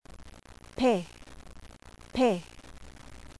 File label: phe, alfabeto, dizione; qabalah